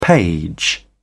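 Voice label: "page", British English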